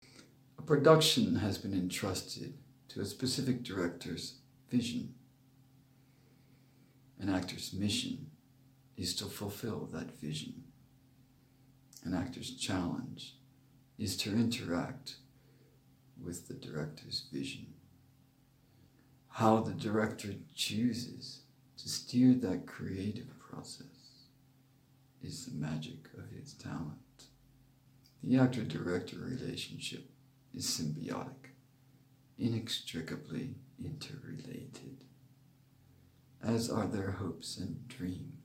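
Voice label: English US UK Flat Meld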